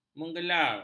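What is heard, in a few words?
"mengelar", Malay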